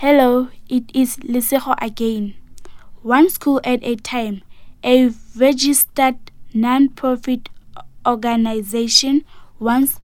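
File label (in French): spot, spots radio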